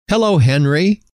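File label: "hello", male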